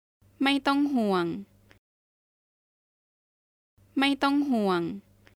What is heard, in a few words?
No worries, Thai